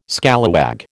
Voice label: "scallywag", American

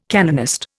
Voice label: "canonist", Indian

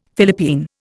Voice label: "Philippine", Indian; Dictionary

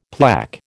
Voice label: "plaque", American

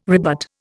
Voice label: "rebut", Indian

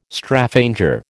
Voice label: "Straphanger", American

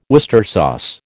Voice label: "Worcester sauce", American

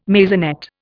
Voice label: "maisonette", Indian

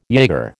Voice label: "jaeger", American

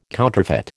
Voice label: "counterfeit", American

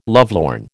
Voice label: "lovelorn", American

